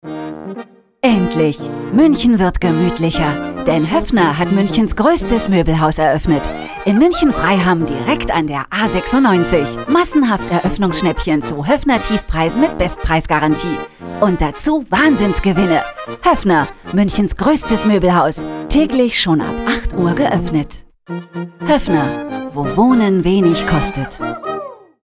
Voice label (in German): werbung, deutsch frauen